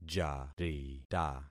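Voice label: "jarita", concatenated syllables; Affixing experiment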